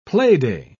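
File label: "playday", British English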